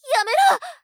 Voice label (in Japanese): やめろ！, ショタ; ハイグレボイス集